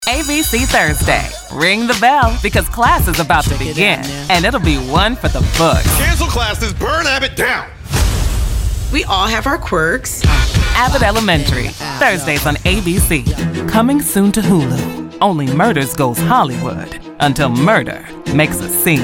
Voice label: promo women

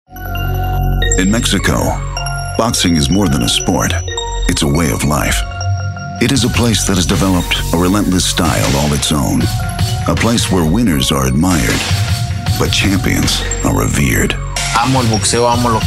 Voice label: narration men

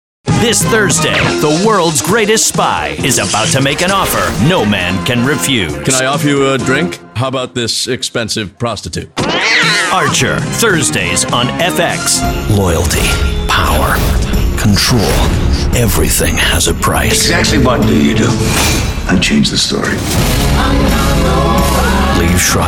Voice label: promo male

